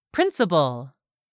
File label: "principle", english; 3000 words